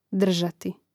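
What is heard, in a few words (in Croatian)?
"drzati", Hrvatski; mreznik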